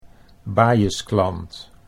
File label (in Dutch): "bajesklant", Dutch